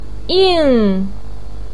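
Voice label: "ying", Mandarin pinyin, fourth tone; 应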